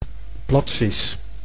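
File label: "platvis", Dutch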